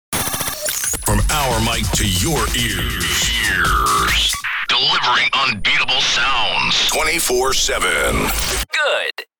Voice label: Male radio voice dj sweeper from our mic to your ears; broadcasting